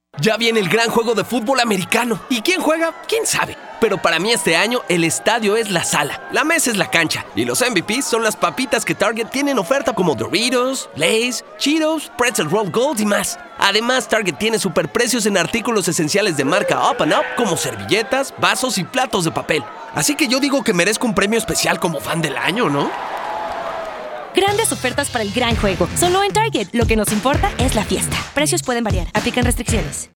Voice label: Radio; TGT SuperBowl